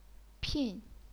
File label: "pin4", chinese pinyin